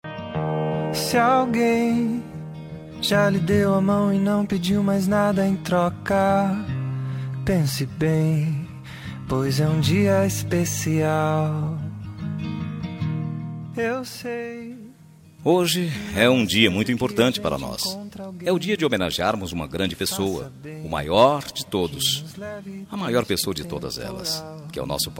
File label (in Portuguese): plural masc, voz masculina; Dia dos Pais